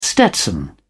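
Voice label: "stetson", British English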